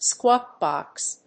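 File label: "squawk box", English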